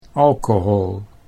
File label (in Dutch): "alcohol", Dutch